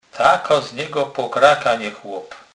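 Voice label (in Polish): "pokraka", Kurpie dialect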